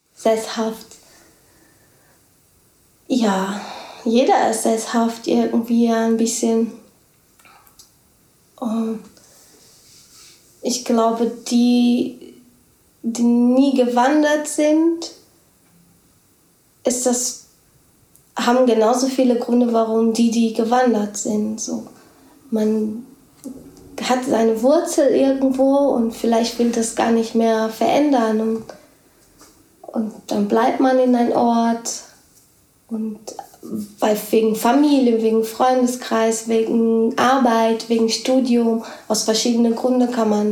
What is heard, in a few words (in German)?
portogiesisch brasilien